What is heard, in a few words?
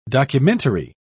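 "documentary", English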